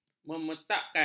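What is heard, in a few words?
"memetakkan", Malay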